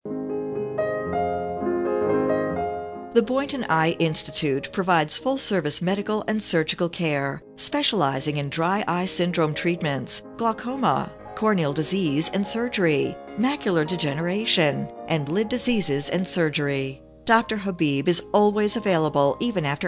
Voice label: Eye Clinic On Hold, messages, on hold, medical dental; Boynton Eye Clinic